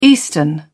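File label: "eastern", British English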